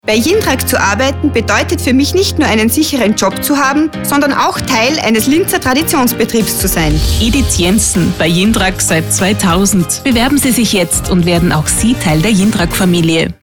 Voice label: SPOT